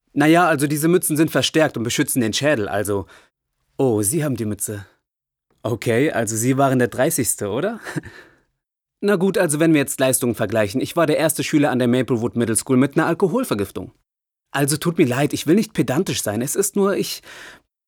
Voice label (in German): Synchron Comedy